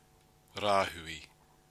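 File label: "rahui", Māori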